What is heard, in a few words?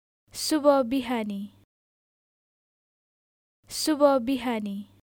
Good morning, Nepali